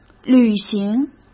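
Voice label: "旅行", Mandarin Chinese; chinese-flashcards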